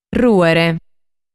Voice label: "Rùere", sardu

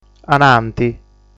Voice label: "Ananti", sardu

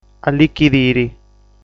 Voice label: "Allichidiri", sardu

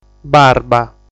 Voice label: "Barba", sardu

